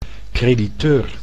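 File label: "crediteur", Dutch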